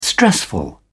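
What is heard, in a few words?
"stressful", English, British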